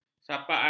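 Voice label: "sapaan", Malay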